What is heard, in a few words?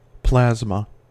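"plasma", American English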